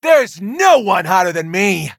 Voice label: level up, en